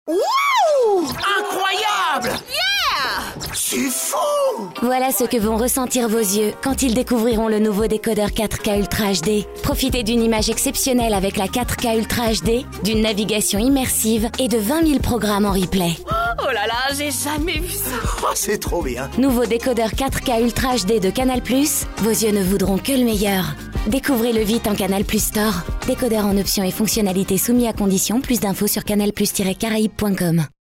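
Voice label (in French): F FR Pub Radio, French, female; Canalbox Caraibes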